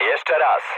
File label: bomb failure mood low, speech pol; War Thunder